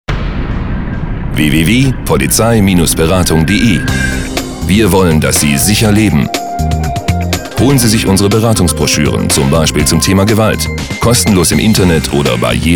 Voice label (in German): Radiospot Polizeiberatung Gewalt